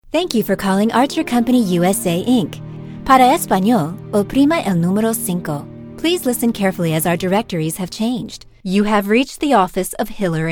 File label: Telephony